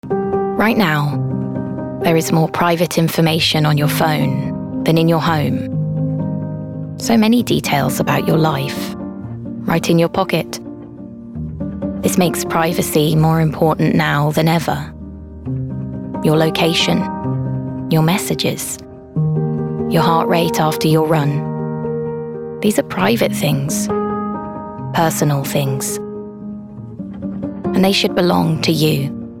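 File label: Apple iPhone Ad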